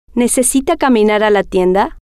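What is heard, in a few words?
necesita Caminar Tienda, Spanish, female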